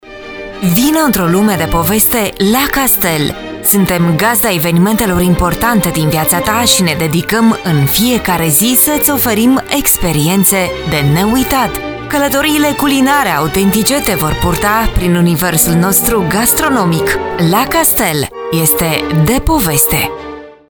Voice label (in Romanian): spot; la castel